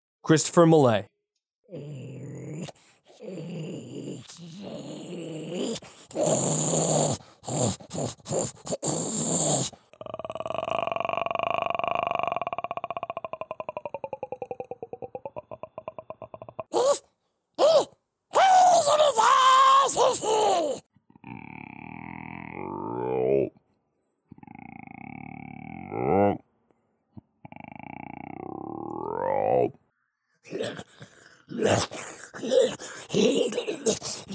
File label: Creature